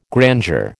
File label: "grandeur", American